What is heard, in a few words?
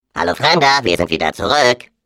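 Defective Turret, German; turret defect return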